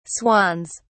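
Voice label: "swans", British English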